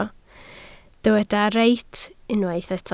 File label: "reit", Welsh